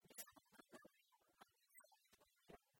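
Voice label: Komi, female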